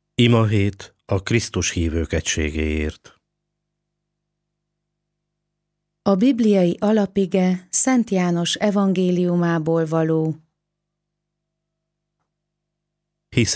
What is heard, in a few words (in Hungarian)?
szignal szoveg; Imahet a krhivok egysegeert